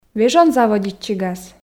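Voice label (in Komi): "вежон заводитчигас", Komi, female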